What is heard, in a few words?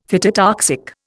"phytotoxic", Indian; Dictionary